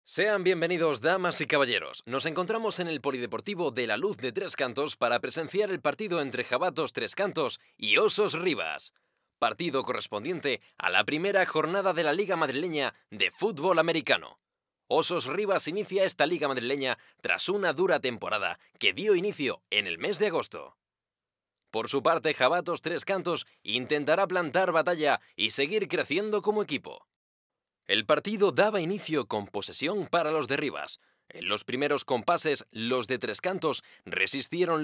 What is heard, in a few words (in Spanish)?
Locucion